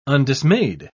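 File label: "undismayed", British English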